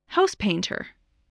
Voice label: "housepainter", voice spelling